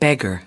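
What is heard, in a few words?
"beggar", US English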